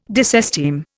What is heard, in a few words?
"disesteem", Indian